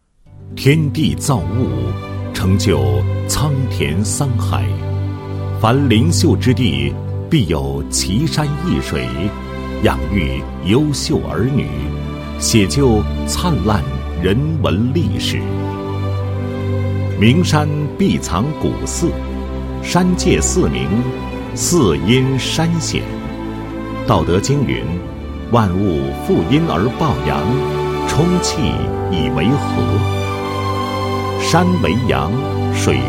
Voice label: 男 旅游宣传; 兰西县特色旅游景观掠影

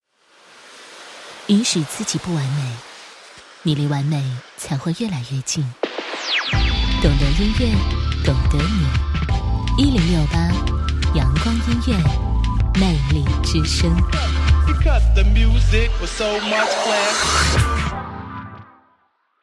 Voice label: 国语女声配音，栏目包装 音乐广播 频道形象

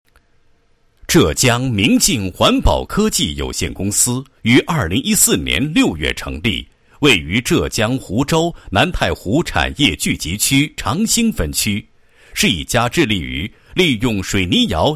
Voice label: 男 企业 环保科技有限公司简介

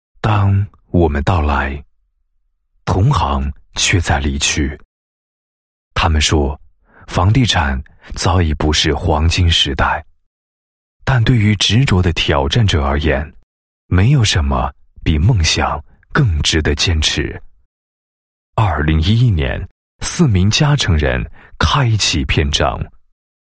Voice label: 男 诗歌; 地产宣传诗歌; 佳诚地产宣传诗歌

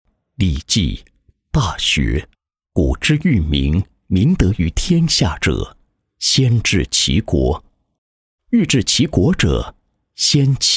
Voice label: 男 宣传片 内衣宣传片配音; 玖绮内衣

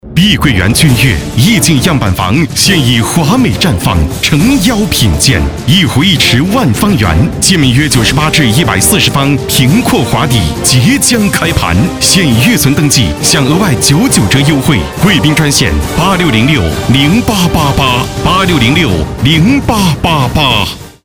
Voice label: 广告; 碧桂园·珺悦

